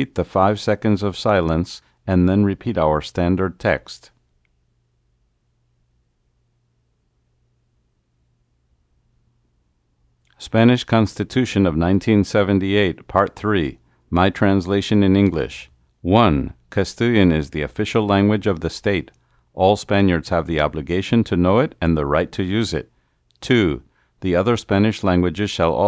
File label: V2M with Pyle head NR